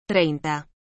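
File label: "treinta", Spanish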